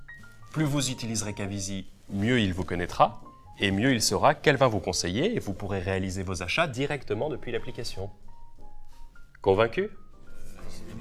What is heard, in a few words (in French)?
voix pub